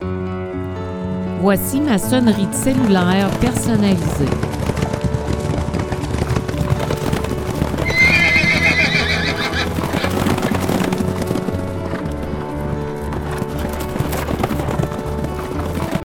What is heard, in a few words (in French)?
ma sonnerie de téléphone personnalisée accompagnée des consignes audio